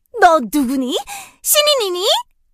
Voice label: die vo, kr